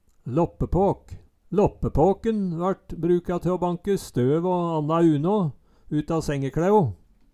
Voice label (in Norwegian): "låppepåk", Numedalsmål